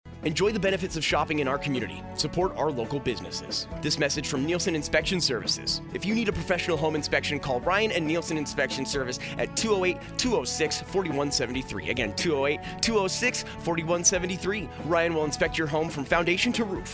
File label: radio ad; Nielson Inspection